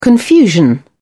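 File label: "confusion", British English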